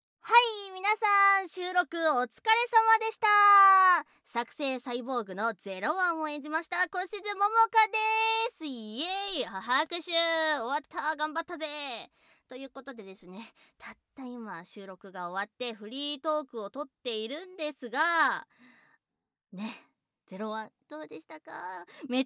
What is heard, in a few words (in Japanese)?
フリートーク, ASMR; 悪のサイボーグ搾精拷問